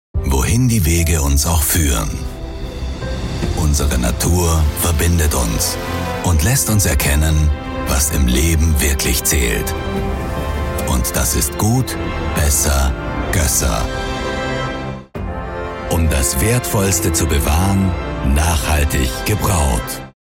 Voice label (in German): Werbung männlich